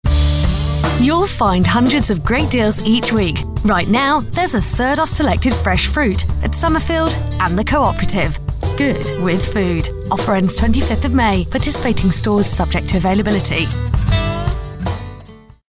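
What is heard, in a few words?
British English, Neutral; Fremdtake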